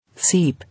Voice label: "Seep", English

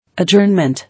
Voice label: "Adjournment", English

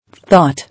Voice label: "Thought", English

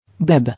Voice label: "Bib", English

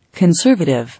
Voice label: "Conservative", English

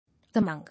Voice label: "Among", English

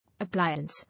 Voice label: "Appliance", English

